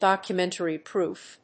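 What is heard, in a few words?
"documentary proof", English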